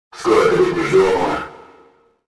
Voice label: russian; XBOX; ambience